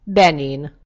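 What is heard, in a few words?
"benin", Hungarian; gcompris; geography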